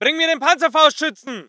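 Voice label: voice german infantry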